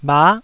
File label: "bha9", taiwanese